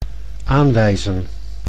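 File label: "aanwijzen", Dutch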